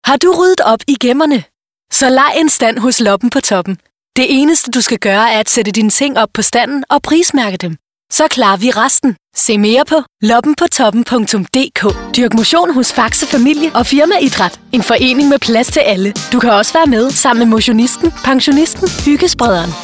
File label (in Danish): Kvindestemme Kvindespeaker